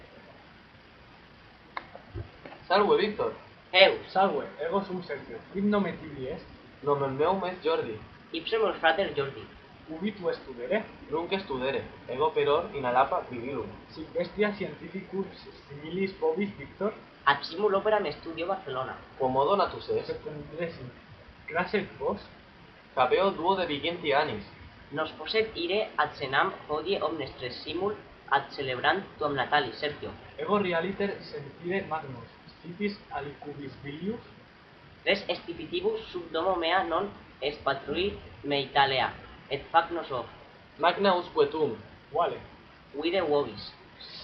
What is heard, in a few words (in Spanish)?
dialogo latin